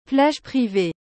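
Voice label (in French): "plage privee", French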